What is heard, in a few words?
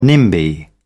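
"nimby", British English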